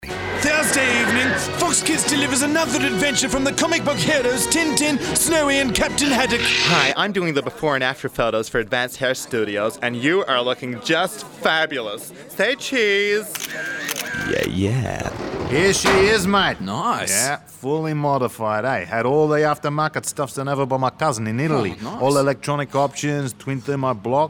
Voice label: character